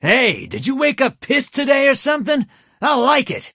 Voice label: pissed